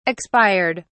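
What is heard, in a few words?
"expired", English, British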